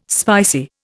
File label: "spicy", Indian